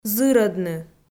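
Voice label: "зырӧдны", Komi-Zyrian, female; audio dict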